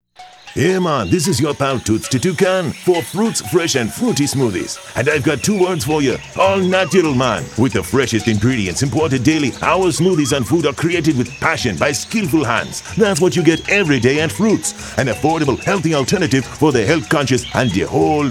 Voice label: JAMICAN GUY FROOTS SPOT